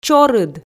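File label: "чорыд", Komi, female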